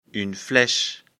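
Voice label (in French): "fleche", French; afrikalan; lecture fr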